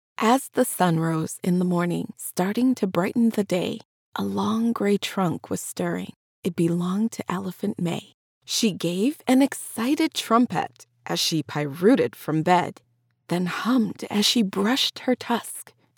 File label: Audiobook; Elephant Mays Slippery Day